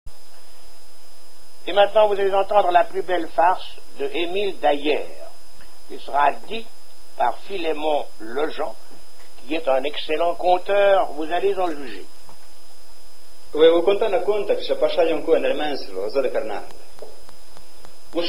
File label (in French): patois d'Heremence; patois valaisans